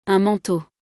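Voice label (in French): "Un manteau", French; vocabulaire; le lexique de l'habillement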